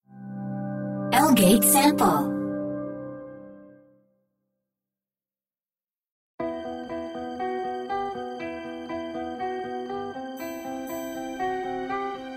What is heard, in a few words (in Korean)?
태국 여 밝은 톤 나레이션